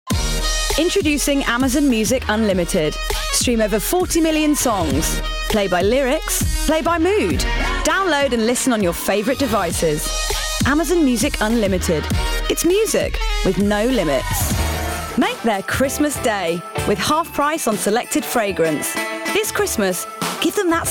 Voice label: Commercial